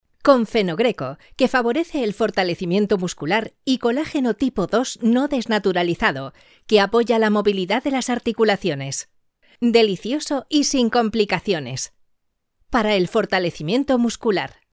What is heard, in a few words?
locutora spanish voiceover